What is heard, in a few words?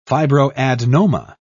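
"fibroadenoma", English, British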